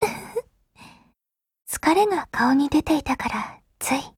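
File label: Japanese; Groza; Dreamscape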